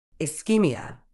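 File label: "ischemia", British English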